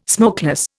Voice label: "smokeless", Indian

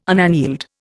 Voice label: "unaneled", Indian

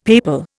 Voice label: "papal", Indian; Dictionary

